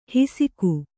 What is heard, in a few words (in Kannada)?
"ಹಿಸಿಕು", Kannada